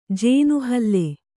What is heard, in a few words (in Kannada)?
"ಜೇನುಹಲ್ಲೆ", Kannada